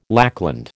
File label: "lackland", American